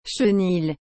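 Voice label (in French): "chenil", French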